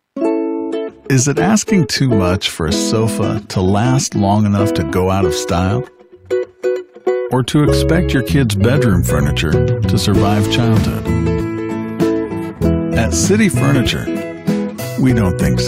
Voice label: Soft Sell